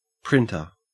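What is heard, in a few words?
"printer", Australian English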